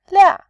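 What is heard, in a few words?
"lia4", Mandarin Chinese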